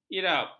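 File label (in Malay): "irab", Malay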